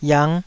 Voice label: "yiang5", Taiwanese

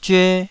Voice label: "zyue2", taiwanese

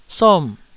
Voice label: "som4", Taiwanese syllable

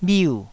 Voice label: "bhiu4", taiwanese Syllables

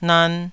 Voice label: "nan2", taiwanese syllables

